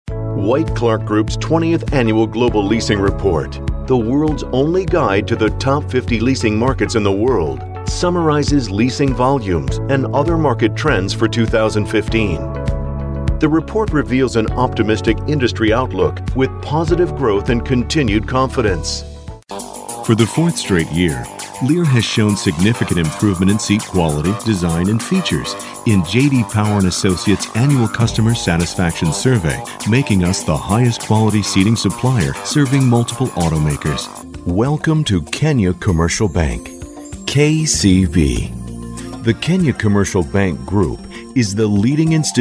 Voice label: american corporate